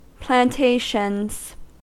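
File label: "plantations", American English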